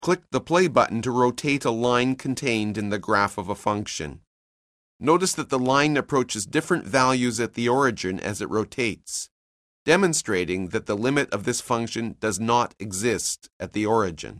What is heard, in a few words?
narration; CALCULUS 7E; cengage